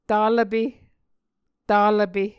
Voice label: "talvpe", muscogee

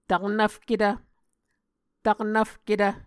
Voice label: "taknvfketv", Muscogee

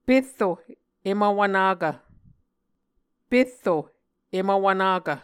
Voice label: "perro em vwvnakv", Muscogee

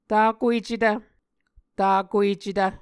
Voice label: "takuecetv", Muscogee

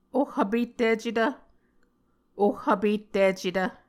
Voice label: "oh vpetticetv", Muscogee

